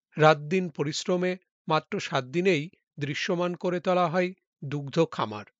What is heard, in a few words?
Male